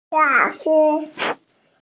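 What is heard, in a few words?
"lao3 shi1", Mandarin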